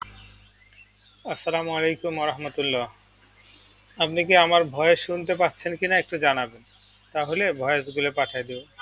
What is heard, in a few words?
voice message